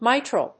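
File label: "mitral", English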